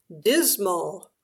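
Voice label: "dismal", English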